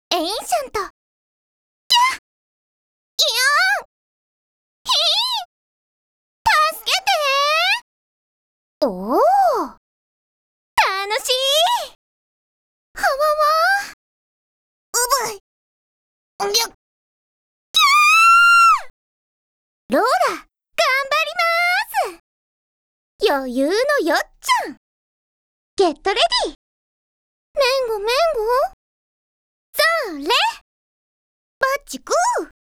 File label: Japanese Voice Collection; Clear Version